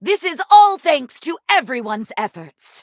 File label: levelup, en; cookie run